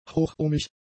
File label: "hochohmig", German; adjectives